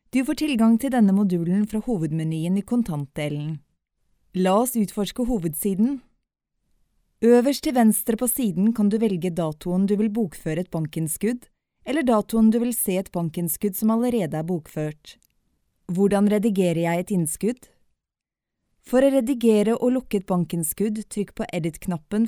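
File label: Norwegian Norway female narrative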